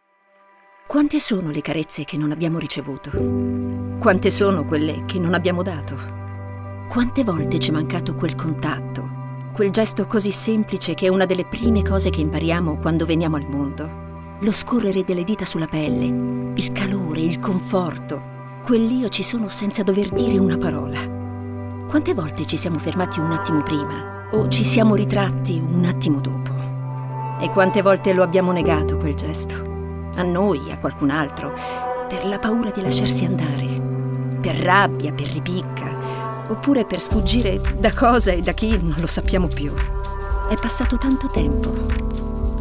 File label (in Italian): racconto